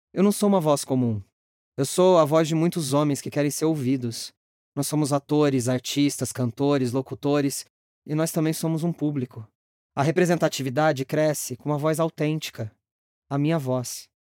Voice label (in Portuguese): Voz Comum